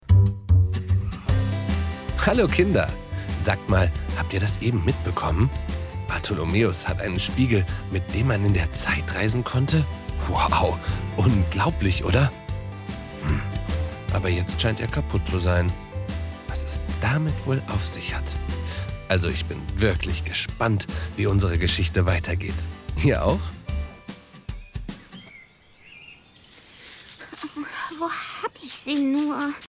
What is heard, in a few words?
Narrator for Kids; LOFT VOICES